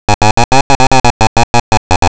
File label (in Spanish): El saxofón se toca con paciencia práctica, Castellano masculino sin estudios superiores; Frases enunciativas; Bullas